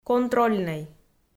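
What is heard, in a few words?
"контрольнӧй", Komi, female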